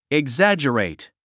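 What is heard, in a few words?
"exaggerate", English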